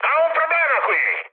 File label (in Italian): aircraft damaged, mood high, speech it